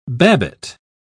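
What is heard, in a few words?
"babbitt", British English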